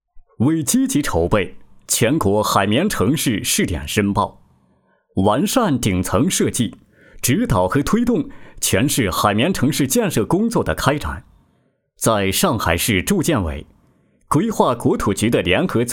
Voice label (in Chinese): 男 规划介绍; 上海海绵城市